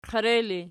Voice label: "qere", Archi